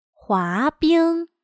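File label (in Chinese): "滑冰", Chinese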